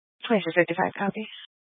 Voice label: Mesa County Sheriff Dispatch; scanner